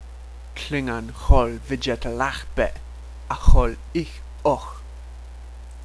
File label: Klingon